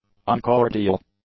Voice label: "uncordial", English; adjectives